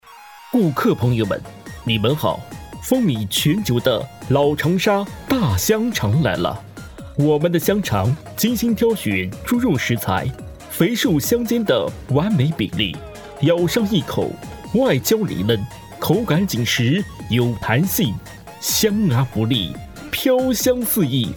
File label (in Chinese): 老长沙大香肠叫卖